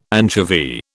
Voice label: "anchovy", British

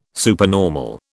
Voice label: "supernormal", British

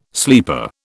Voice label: "sleeper", British

